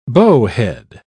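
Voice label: "bowhead", English, British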